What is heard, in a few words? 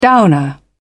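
"downer", British English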